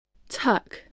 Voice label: "tuck", voice